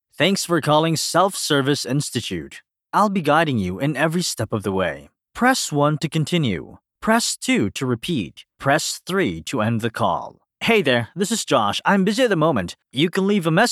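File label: English interactive voice response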